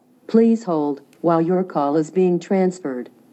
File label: Call Transfer Greeting